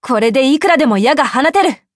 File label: levelup ja; cookierun